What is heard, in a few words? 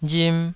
"rim2", taiwanese